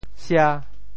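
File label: "sia5", Teochew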